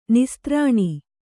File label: "ನಿಸ್ತ್ರಾಣಿ", Kannada